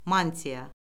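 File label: "mantiya", Azerbaijani; Orfoqrafiya Lüğəti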